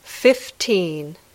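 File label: "fifteen", English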